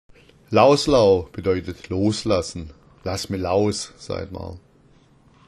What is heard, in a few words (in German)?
"laos lao", schwaebisch